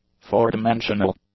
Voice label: "four-dimensional", English; adjectives

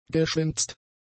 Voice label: "geschwänzt", German; adjectives

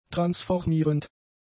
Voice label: "transformierend", German; adjectives

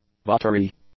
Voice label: "buttery", English; adjectives

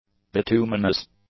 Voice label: "bituminous", English; adjectives

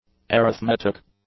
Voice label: "arithmetic", English; adjectives

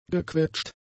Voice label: "gequetscht", German; adjectives

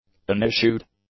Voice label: "unissued", English; adjectives

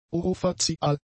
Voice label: "orofazial", German; adjectives

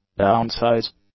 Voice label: "downsize", English; adjectives

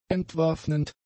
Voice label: "entwaffnend", German; adjectives

